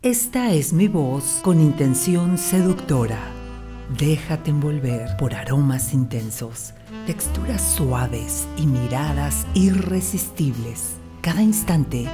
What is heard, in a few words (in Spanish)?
Seductora Musicalizada